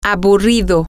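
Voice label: boring, Spanish, female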